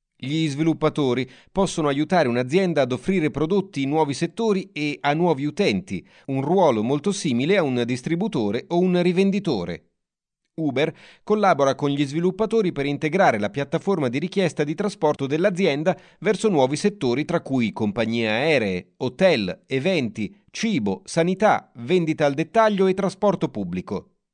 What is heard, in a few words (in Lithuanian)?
VYR Italu balsas, vyras